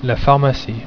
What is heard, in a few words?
"Pharmacie", french